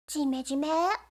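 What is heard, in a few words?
"jimejime", Japanese; kikou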